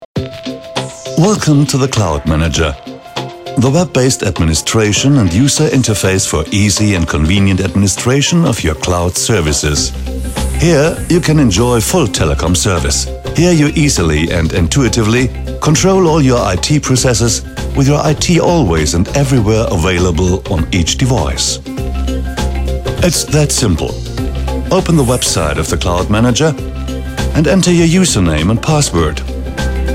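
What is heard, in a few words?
Corporate Video English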